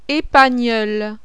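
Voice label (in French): "épagneul", French; CHIEN; mammifère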